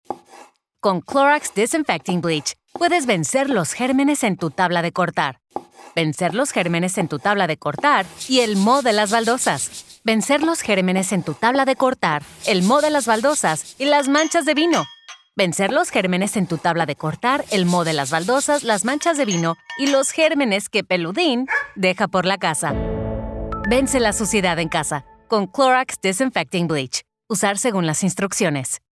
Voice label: Clorox Spanish, fun, friendly voice that can be announcer and conversational all in one